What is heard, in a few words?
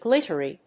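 "glittery", English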